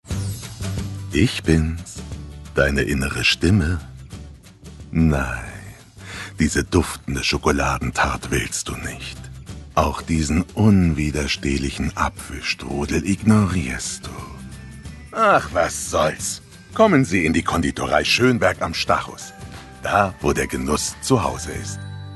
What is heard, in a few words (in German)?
Die Konditorei Werbung